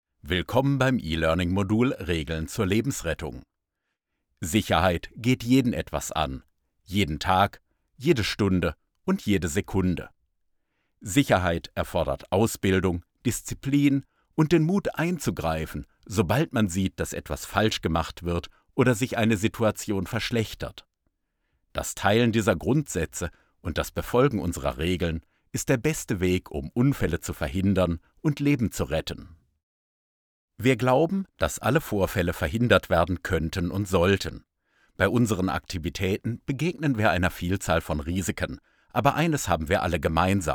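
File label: German, male, elearning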